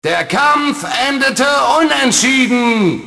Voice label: Announce draw, GERMAN; Rocky Legends; XBOX

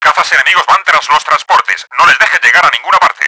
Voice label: incoming fighters, Spanish; Battle Engine Aquila; XBOX

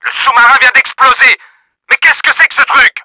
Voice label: SUB DESTROYED, french; Battle Engine Aquila; XBOX

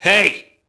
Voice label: mobster alert; Max Payne

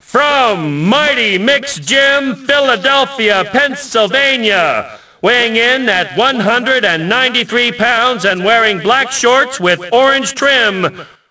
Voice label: announce, ENGLISH; Rocky; XBOX

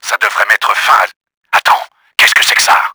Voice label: NICE SHOOTING, french; Battle Engine Aquila; XBOX

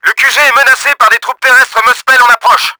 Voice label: WARNING, french; Battle Engine Aquila; XBOX

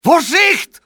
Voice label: watch out, male; XBOX

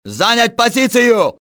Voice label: take position, russian soldier; XBOX

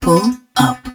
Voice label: Pull Up, english

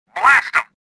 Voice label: anger; XBOX; stofficer

